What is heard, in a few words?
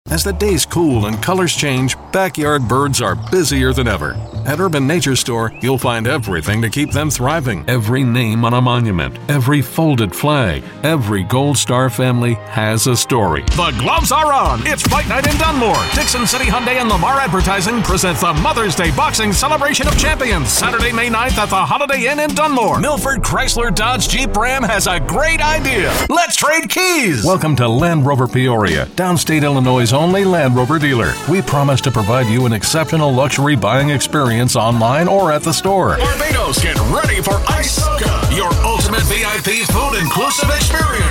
Commercial